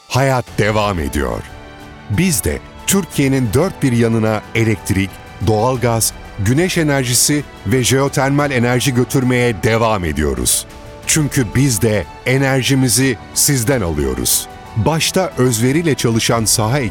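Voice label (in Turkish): sicak sakin, seslendirme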